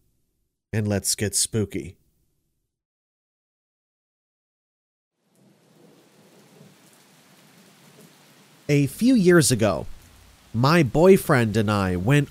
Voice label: rv horror stories ambient rain; black screen for sleep camping scary stories